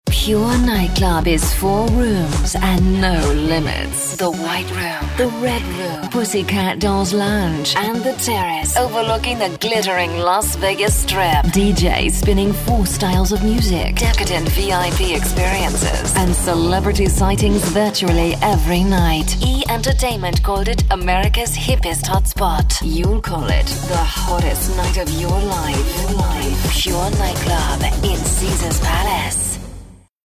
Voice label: Accent British